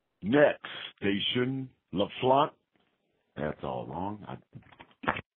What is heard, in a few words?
metro announcement; la flaunt plaza